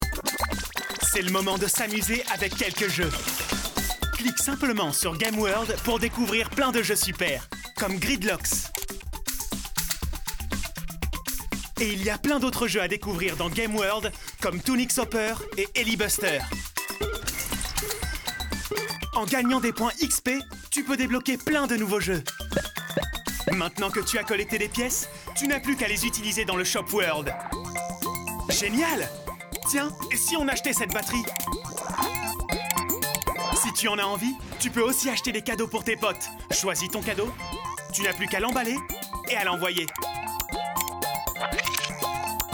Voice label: CARTOON; Toonix